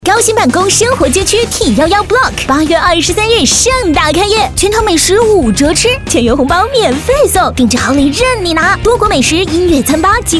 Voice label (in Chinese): 女, 广告 激情炫酷 房产类